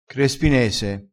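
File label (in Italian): "crespinese", dialettale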